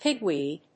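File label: "pigweed", English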